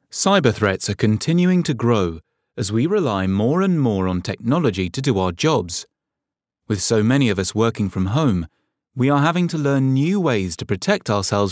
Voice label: french voice elearning

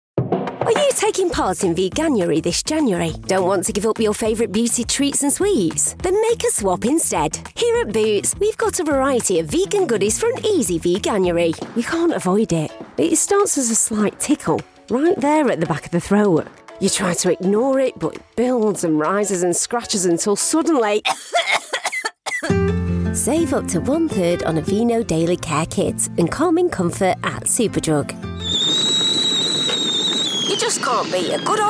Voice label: instore announcements

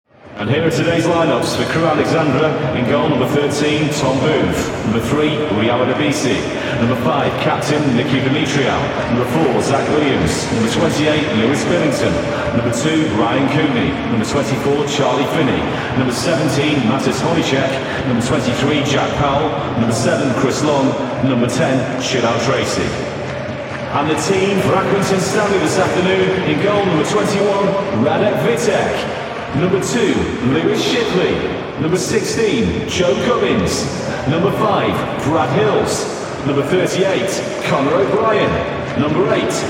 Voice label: stadium announcer; vog

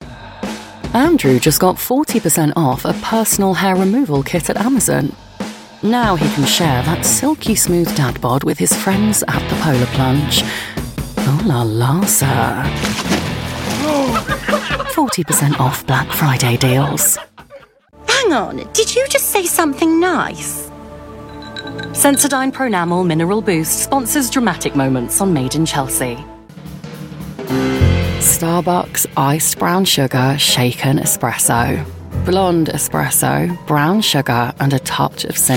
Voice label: commercial

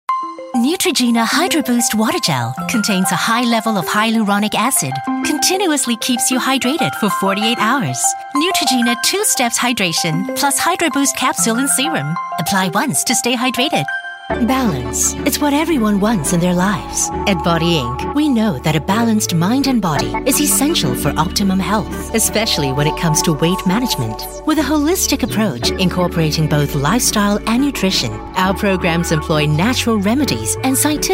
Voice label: singapore voice commercial

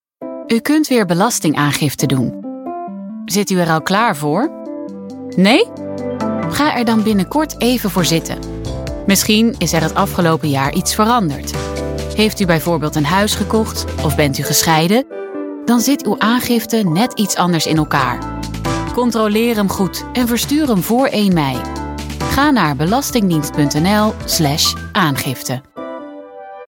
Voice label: Dutch voice corporate

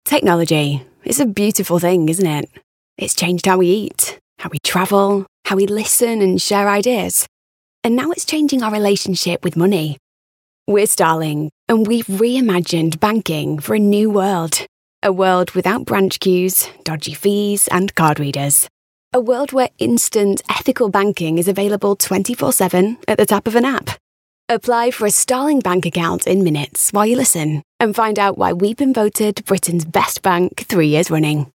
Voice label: natural

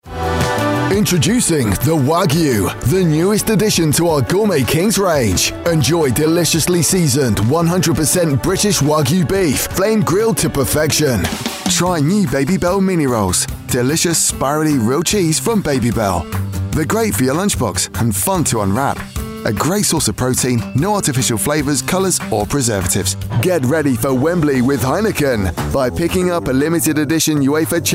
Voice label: instore retail VO reel

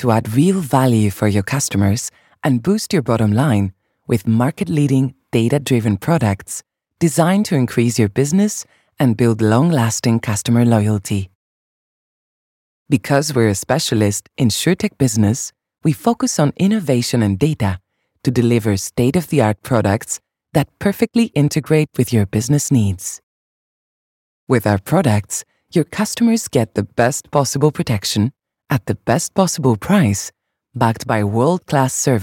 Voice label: European voiceover corporate

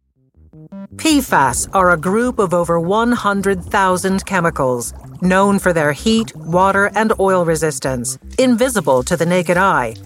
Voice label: documentary

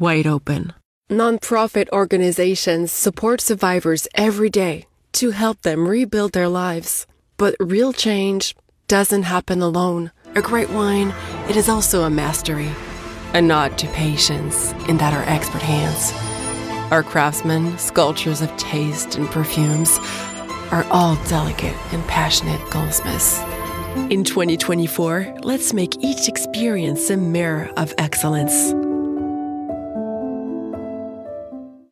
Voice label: french campaign voice english with accent

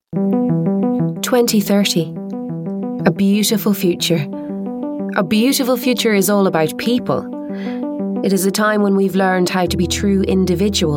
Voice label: irish voiceover corporate

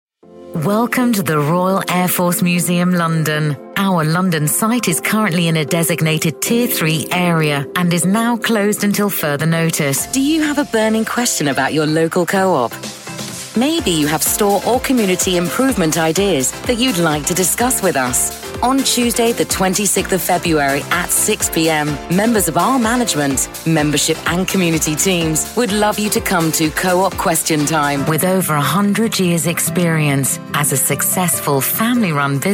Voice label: telephone

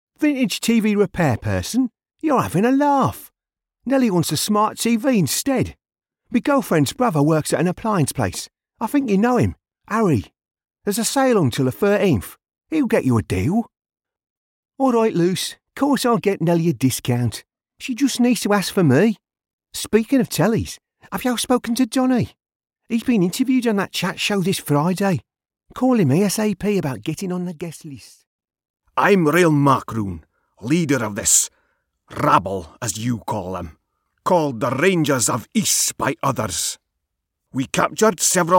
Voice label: teesside voiceover character

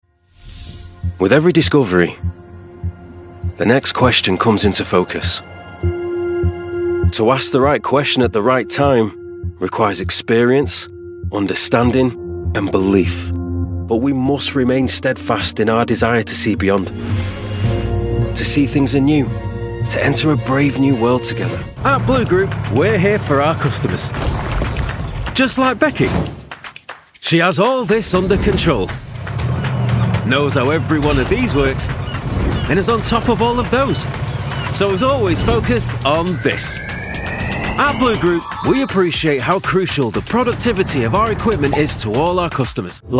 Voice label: manchester voice corporate

